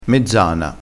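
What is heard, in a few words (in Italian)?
"mezzana", dialettale crespinese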